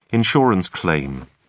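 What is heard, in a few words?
"insurance claim", British English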